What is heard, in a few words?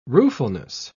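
"ruefulness", English, British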